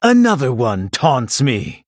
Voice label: Male Blood Elf ERROR TAUNT